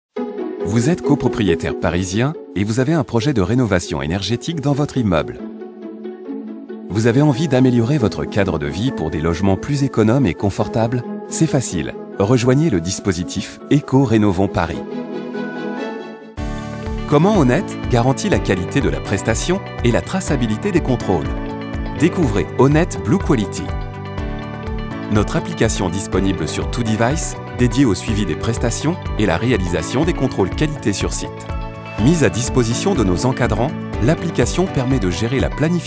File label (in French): MEDLEY Voix Off Instit